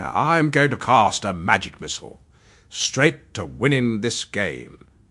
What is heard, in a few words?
happy, speech; fertig classic games; zanthor